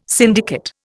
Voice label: "syndicate", Indian

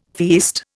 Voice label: "feast", Indian

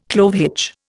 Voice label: "clove hitch", Indian

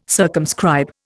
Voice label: "circumscribe", Indian

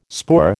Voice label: "spoor", American

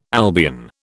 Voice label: "Albion", British

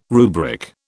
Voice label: "rubric", British

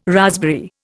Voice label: "raspberry", Indian

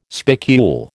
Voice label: "spicule", American

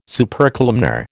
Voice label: "Supercolumnar", American

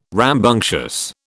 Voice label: "rambunctious", British

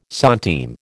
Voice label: "centime", American

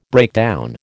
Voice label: "breakdown", American

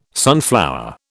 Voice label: "sunflower", British

